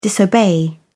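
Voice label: "disobey", English, British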